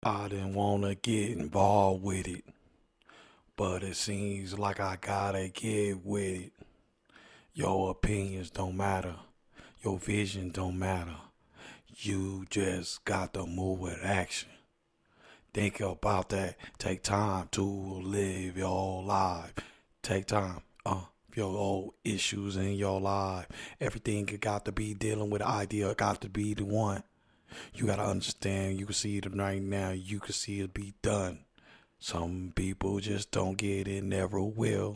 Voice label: hip hop 122 Gm, acapellas